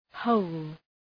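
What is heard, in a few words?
"hole", English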